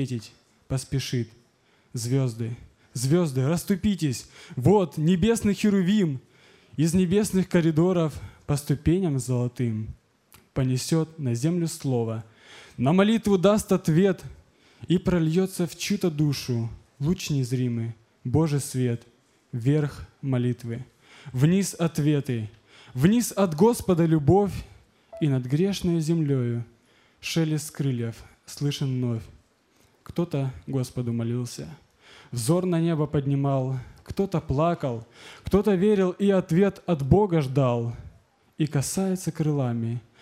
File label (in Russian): стих Тихий голос